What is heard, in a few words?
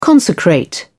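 "consecrate", British English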